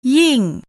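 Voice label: "ying", Malay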